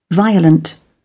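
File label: "violent", British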